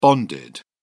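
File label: "bonded", British English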